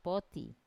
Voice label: "poti", small sea turtle, wamesa